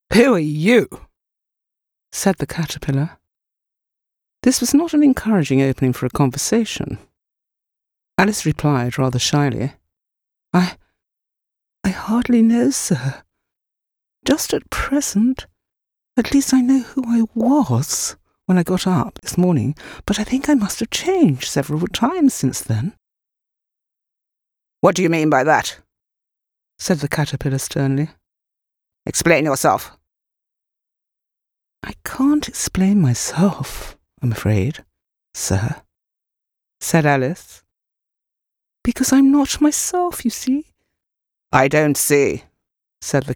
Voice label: Narrative, 2 characters, Classic Text; Alice in Wonderland